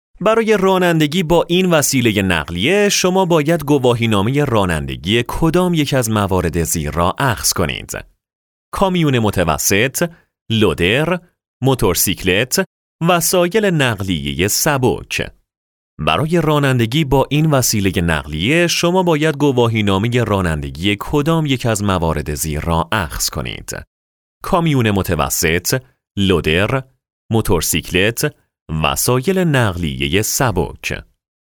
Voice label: Farsi Test Driver